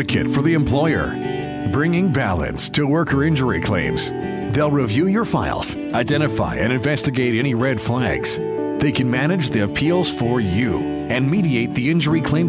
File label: Commercial